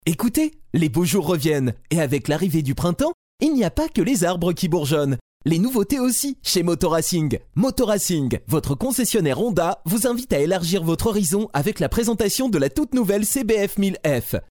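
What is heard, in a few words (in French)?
French, male, commercial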